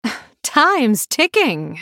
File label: female blood elf time